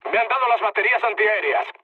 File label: damaged aa, mood low, speech; War Thunder